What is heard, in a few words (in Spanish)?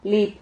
"lip", Silabas